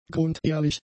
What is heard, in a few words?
"grundehrlich", German; adjectives